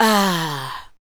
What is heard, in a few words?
ADLIBS 90; Ueberschall; Jam Box